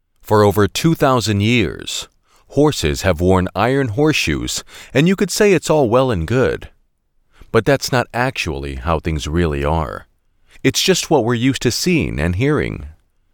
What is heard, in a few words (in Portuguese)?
Ingles Americano Homem adulto